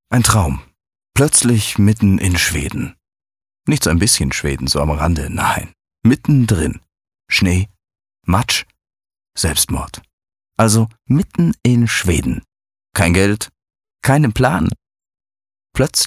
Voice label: Schauspiel Neutral

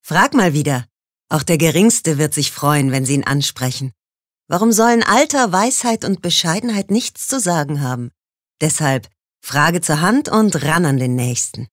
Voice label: Werbung Freundlich

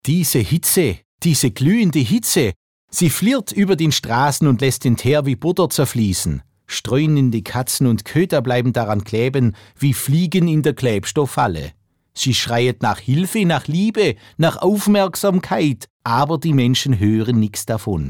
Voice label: Dialekt Schwaebisch

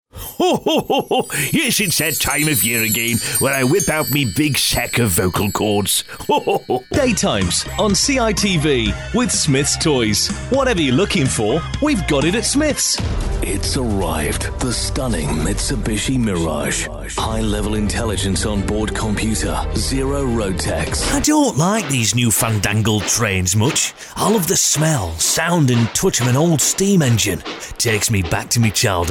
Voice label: Eng UK Werbung Variabel

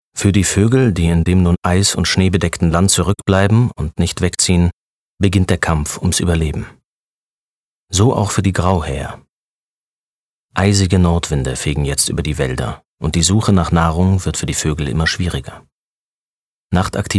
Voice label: Neutral; Fremdtake

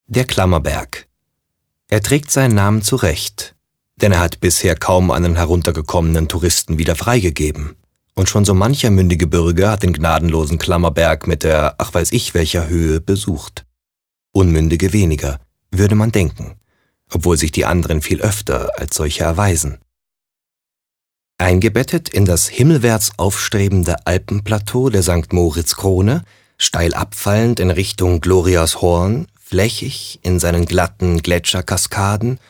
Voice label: Kommentar Neutral

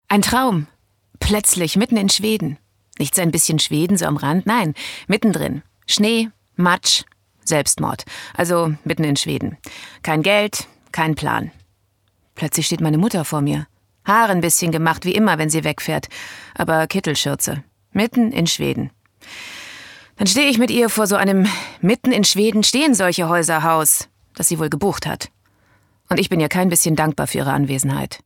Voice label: Schauspiel Energisch

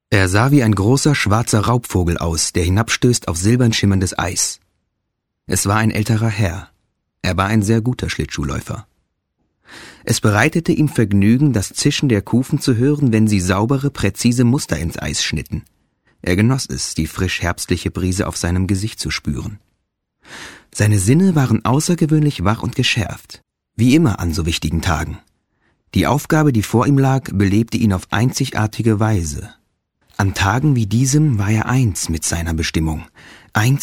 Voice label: Schauspiel, Neutral

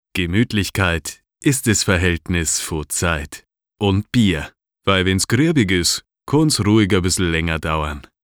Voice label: Dialekt Bayrisch

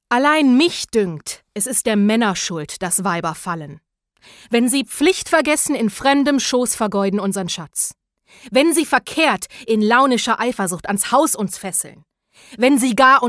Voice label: Schauspiel Poetisch

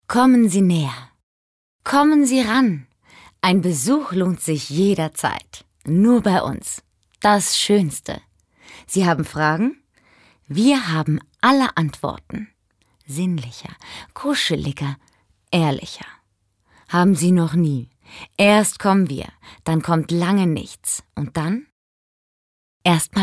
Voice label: Schauspiel Lebendig

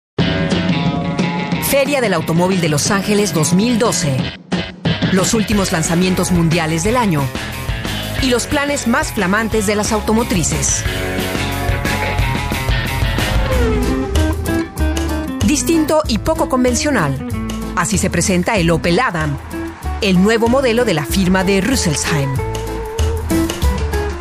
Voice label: ESP Werbung Dynamisch

